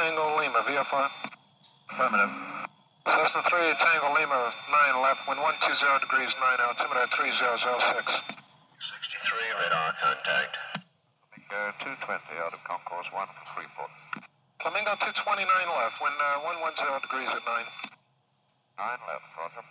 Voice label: Air to ground communications, Miami flight dispatch office